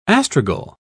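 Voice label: "astragal", British English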